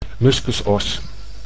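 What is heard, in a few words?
"muskusos", Dutch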